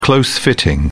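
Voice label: "close fitting", British English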